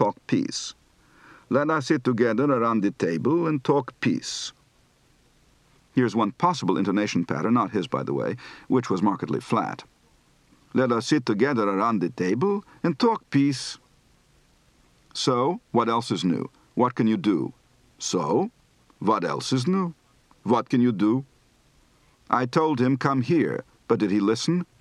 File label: Yiddish, Accent